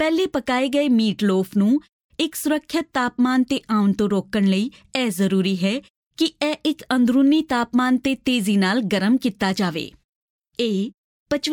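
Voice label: Punjabi female e-learning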